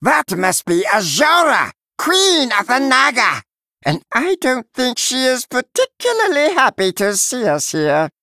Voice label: Male Murloc; Finley